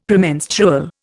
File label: "Premenstrual", Indian; Dictionary